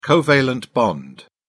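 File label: "covalent bond", British English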